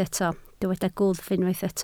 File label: "gwddf", Welsh